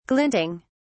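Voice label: "glinting", British English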